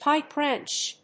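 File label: "pipe wrench", English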